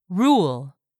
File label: "RULE", english; 3000 words